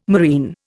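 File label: "moreen", Indian English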